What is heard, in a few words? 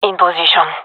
in position, gendermerie fem; vo